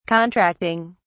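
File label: "contracting", British English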